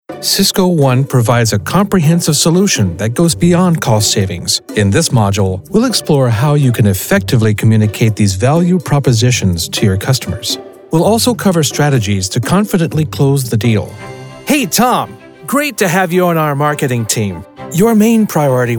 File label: elearning, Male Voice Actor